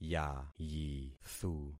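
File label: "yayithu", concatenated syllables; Affixing experiment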